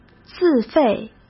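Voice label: "自费", Mandarin Chinese; hsk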